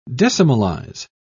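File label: "decimalise", English, British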